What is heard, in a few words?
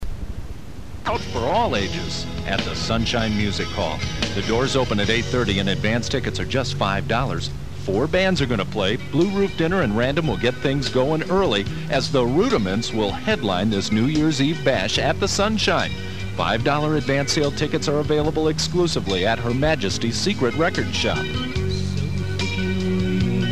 radio ad